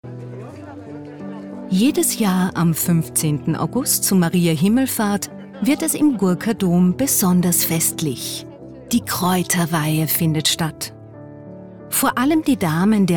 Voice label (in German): Doku